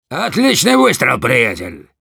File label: nice shot ru; Demoman